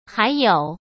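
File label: "还有", Mandarin Chinese; chinese flashcards; hsk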